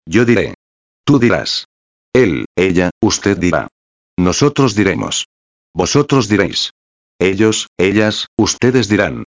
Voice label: verbo decir, futuro imperfecto

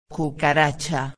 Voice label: "cucaracha", espanhol; palavra do dia

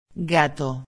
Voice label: "gato herramienta", espanhol; palavra do dia

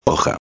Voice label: "hoja", espanhol; palavra do dia

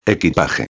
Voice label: "equipaje", espanhol; palavra do dia

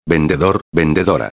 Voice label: "vendedor a", espanhol

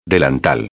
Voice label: "delantal", espanhol; palavra do dia

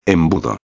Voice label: "embudo", espanhol; palavra do dia

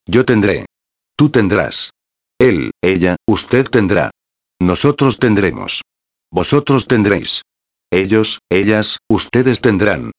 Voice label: verbo tener, futuro imperfecto; Tendré, Tendrás, Tendrá, Tendremos, Tendréis, Tendrán

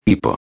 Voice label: "hipo", espanhol; palavra do dia

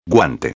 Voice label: "guante", espanhol; palavra do dia

